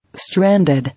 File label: "Stranded", English